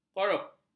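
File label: "korup", Malay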